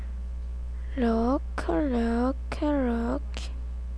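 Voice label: "lick", spoken word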